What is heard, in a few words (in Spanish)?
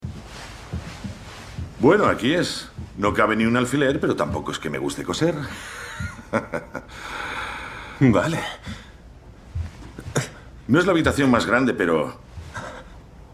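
doblaje España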